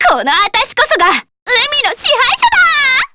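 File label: Skill, Japanese; Miruru L; kingsraid; jp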